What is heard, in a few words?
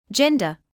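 "gender", British English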